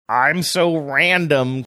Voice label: saying im so random funny